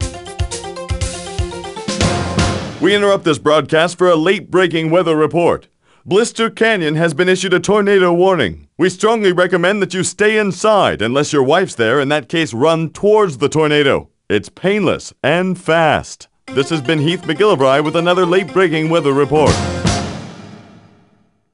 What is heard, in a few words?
News Tornado